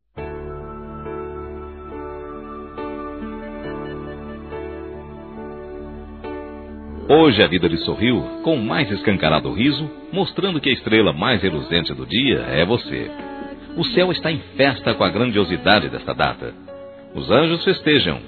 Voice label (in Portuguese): IRMÃ M